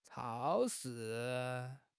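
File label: "chao1 shi4", Mandarin Chinese; HSK3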